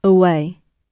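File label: "away", British English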